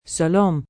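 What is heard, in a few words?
Hello Bye Arabic, Greetings, female, Arabic; Greetings And Goodbye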